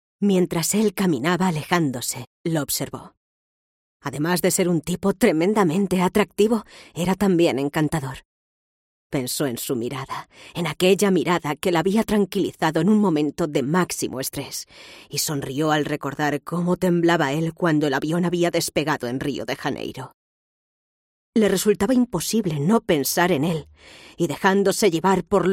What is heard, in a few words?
female, audiobooks